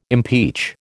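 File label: "impeach", American English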